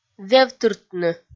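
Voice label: "вевтыртны", Komi, female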